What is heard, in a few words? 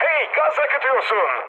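damaged water, mood med, Turkish; War Thunder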